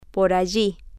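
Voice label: over around there, Spanish, female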